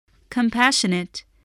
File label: "compassionate", english